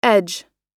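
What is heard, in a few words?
"edge", English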